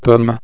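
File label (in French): "pomme", French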